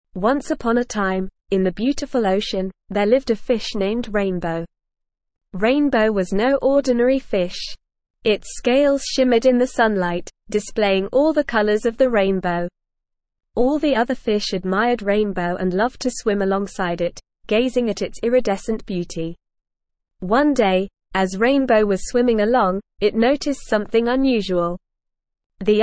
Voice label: ESL Short Stories for Kids Advanced NORMAL Reading; The Rainbow Fishs Journey